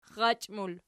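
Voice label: "xxachi" plural, Archi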